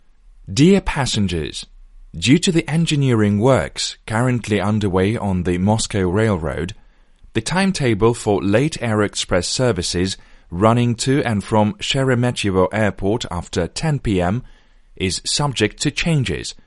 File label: Russian English M Announcement, accent of russia